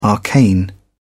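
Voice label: "arcane", British English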